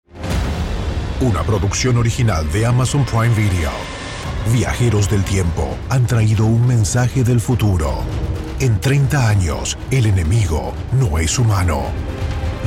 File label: Spanish male, radio ad; amazon tomorrow war